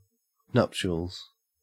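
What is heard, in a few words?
"nuptials", Australian English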